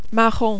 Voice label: "marron", French